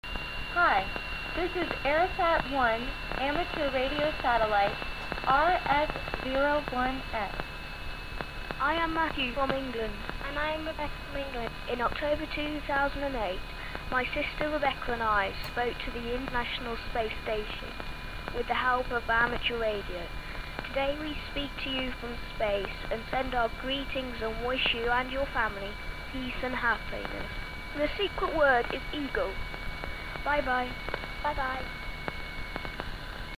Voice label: 145.900MHZ ARISSAT 1 VOICE MSG